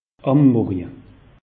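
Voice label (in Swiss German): "ammorje", Alsatian; meteo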